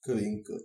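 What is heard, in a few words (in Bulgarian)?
ladybug desc bulgarian; insects; cartoon; tuxpaint-stamps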